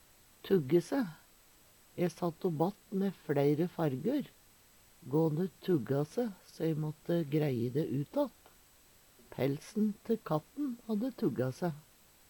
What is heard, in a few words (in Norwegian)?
tugge se, Numedalsmål